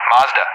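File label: "Mazda", English; Callsign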